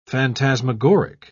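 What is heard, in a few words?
"phantasmagoric", English, British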